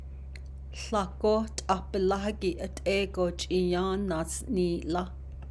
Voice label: navajo; In some locations even more food was distributed; góó to, toward, along, on, with a numeral it indica